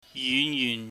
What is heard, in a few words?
"yun yuhn", Cantonese